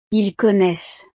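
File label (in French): "ils connaissent", French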